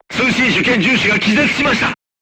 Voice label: voice message machine gunner contused, crew tanks japanese